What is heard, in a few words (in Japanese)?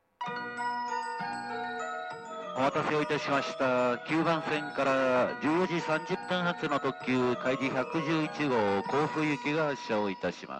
発車放送, 新宿駅; 中央本線特急(八王子・甲府・松本・南小谷方面); 9番線; ライナー(立川・高尾・青梅方面)専用ホーム